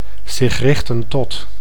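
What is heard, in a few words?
"zich richten tot", Dutch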